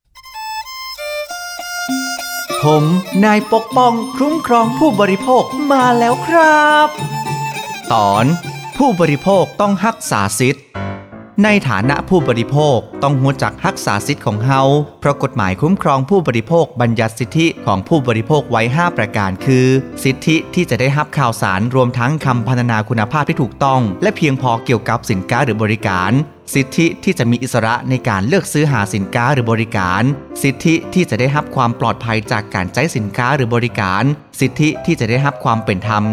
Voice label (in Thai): สปอตวิทยุ ภาคเหนือ; สื่อประชาสัมพันธ์; สคบ.; สำนักงานคณะกรรมการคุ้มครองผู้บริโภค